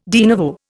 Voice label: "de novo", Indian

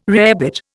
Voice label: "rarebit", Indian

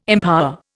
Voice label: "empower", Indian

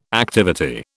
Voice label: "activity", British

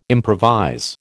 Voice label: "improvise", American

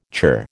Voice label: "churr", American

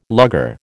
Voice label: "lugger", American

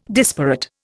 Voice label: "disparate", Indian

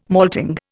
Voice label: "malting", Indian

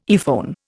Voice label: "earphone", Indian

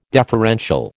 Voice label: "deferential", American

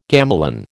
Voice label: "gamelan", American

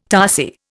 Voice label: "tarsier", Indian

